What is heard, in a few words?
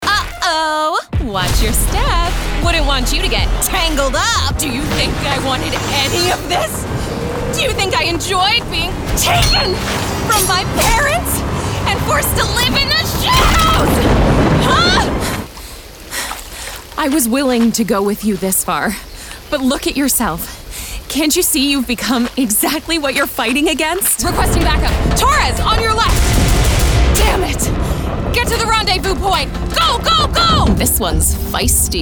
VO Game Demo